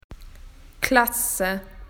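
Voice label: "classroom", Australian English; PictureDictionary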